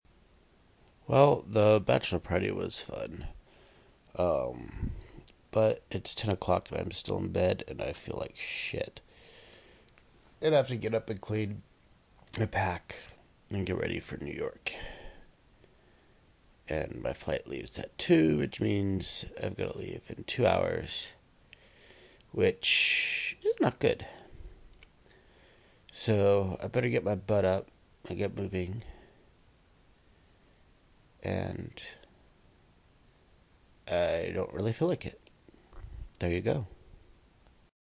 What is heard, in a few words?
hung over